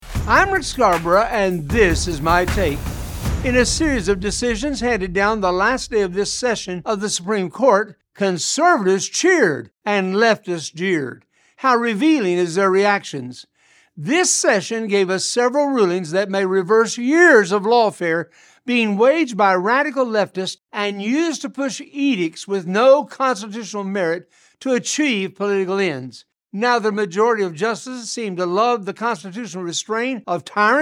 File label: Radio Spot; Rick Scarborough; SCOTUS Rulings